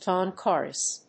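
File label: "dawn chorus", English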